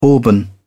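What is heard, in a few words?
"auburn", British English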